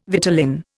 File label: "vitellin", Indian